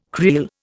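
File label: "creel", Indian English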